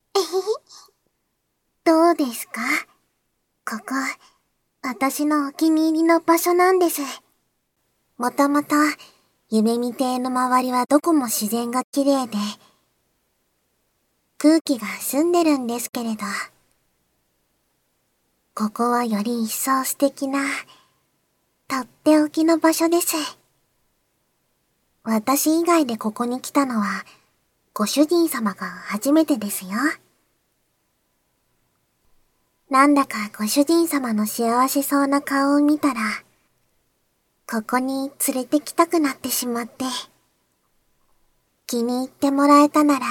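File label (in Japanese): まったり二人で森林浴（効果音なし）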